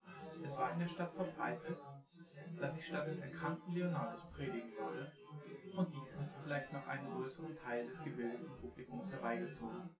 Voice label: male synth conv; SiSEC10; underdetermined